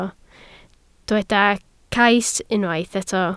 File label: "cais", Welsh